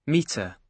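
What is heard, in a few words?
"metre", British English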